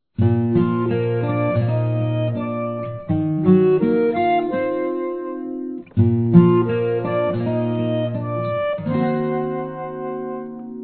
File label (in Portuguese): Natal Para Neto Feminino; Telemensagens; Natal e Ano novo